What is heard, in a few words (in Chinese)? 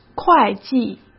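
"会计", Mandarin Chinese; chinese-flashcards; hsk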